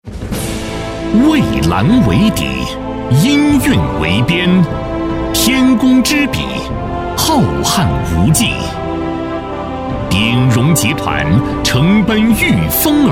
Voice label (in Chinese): 男 大气力度 专题片; 鼎荣集团